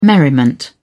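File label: "merriment", British English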